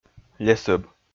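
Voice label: "лесӧб", Komi, male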